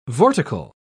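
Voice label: "vortical", British English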